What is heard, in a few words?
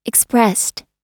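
"expressed", American English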